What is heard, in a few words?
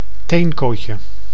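"teenkootje", Dutch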